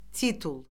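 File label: "titul", Azerbaijani; Orfoqrafiya Lüğəti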